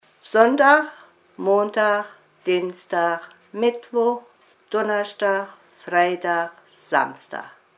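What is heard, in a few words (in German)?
wochentage, Hunsrück; Völkenroth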